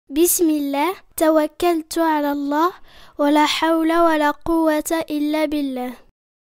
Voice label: Manzil Mein Jaane Ki Dua Islamic Ringtone; طب الوجهة